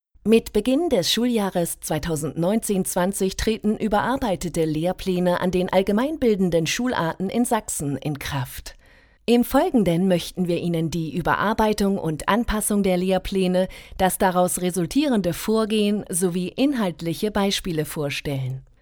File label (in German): Schulungsfilm; LASUB Sachsen